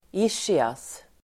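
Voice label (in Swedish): "ischias", Swedish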